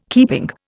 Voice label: "keeping", Indian

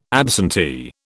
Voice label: "absentee", British

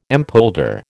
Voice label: "empolder", American

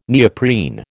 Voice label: "neoprene", American

